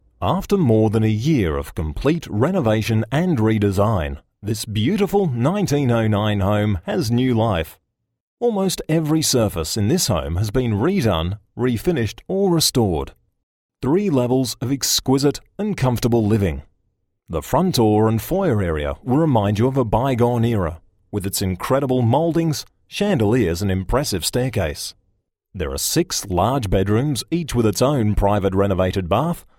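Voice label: English Australian, narration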